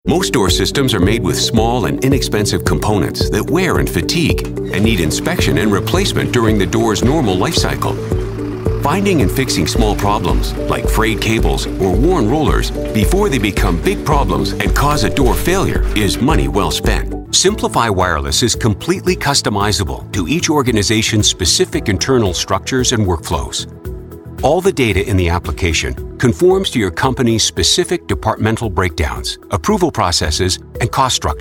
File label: voiceover examples